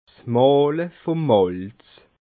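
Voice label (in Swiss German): "mahle", Alsatian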